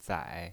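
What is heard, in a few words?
"zai" Mandarin Chinese pinyin voice, third tone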